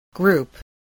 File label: "group", spelling